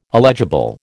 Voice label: "illegible", American